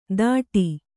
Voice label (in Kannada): "ದಾಟಿ", Kannada